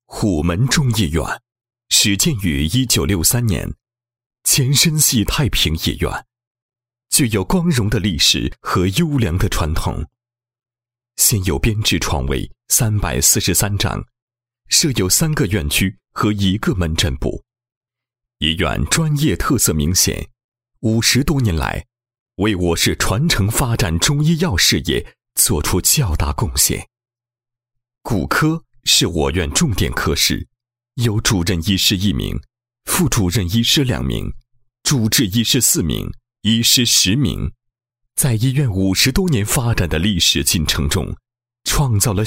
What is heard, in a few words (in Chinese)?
男, 医院宣传片 中医院宣传片; 虎门